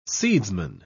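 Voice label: "seedsman", British English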